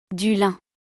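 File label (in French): "Du lin", French; vocabulaire; le lexique de l'habillement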